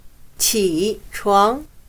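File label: "起床", Chinese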